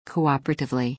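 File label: "cooperatively", English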